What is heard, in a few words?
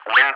"wind", ENG, ATC; DCS